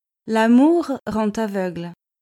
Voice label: "l'amour rend aveugle", French